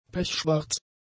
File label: "pechschwarz", German; adjectives